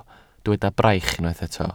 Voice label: "braich", Welsh

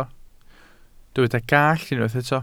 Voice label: "gallt", welsh

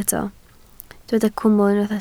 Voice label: "cwmwl", Welsh

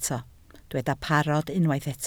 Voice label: "parod", Welsh

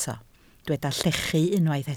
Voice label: "llechu", welsh

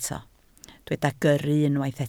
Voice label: "gyrru", Welsh

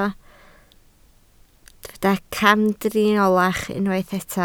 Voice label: "camdriniolach", Welsh

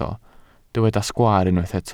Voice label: "sgwaar", Welsh

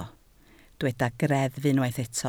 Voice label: "greddf", Welsh

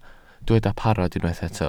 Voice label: "parod", Welsh

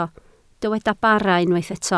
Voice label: "bara", Welsh

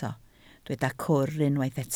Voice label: "cwr", Welsh

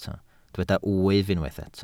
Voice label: "wyf", Welsh

